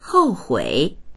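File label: "hou4hui3", Chinese; hòuhuǐ; 后悔; 後悔（する）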